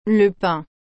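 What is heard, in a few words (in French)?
"le pain", French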